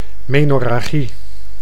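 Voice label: "menorragie", Dutch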